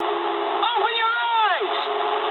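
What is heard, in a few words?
English player friendly fire mood medium; War Thunder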